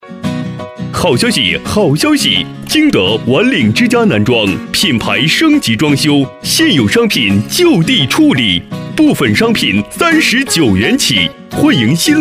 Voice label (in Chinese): 促销 男装